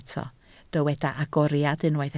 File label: "agoriad", Welsh